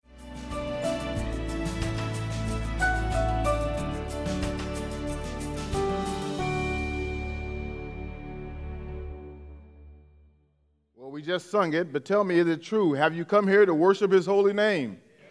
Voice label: English Sermon